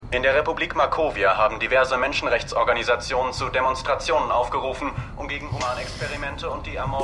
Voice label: TV Nachrichtensprecher; Stimmproben; Black Lightning

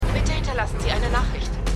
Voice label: Telefonstimme; Manifest

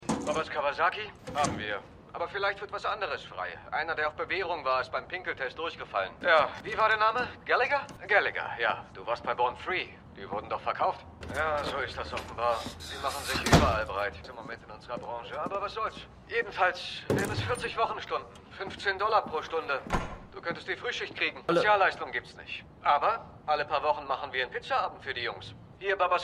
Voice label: Telefonstimme; Shameless

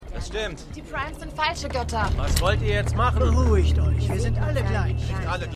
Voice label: Wachmann, Stimmproben; Wonkru; The 100

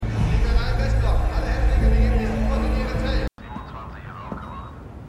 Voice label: Durchsage Funk; Truth Be Told

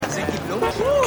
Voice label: Nachstarrender Mann, Stimmproben; Was Frauen wollen

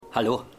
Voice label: Telefonmann, Stimmproben; Seinfeld

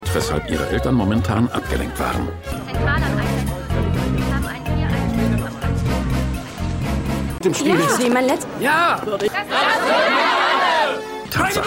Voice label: Funk Verehrerin Junger Verehrer Menge Polizist; Olives Mutter; Pushing Daisies

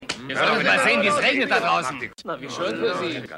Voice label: Erster Mann Hauptsprecher; Maennerwirtschaft

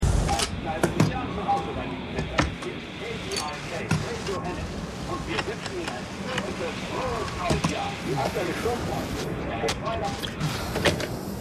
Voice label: Radiosprecher

